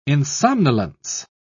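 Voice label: "insomnolence", British English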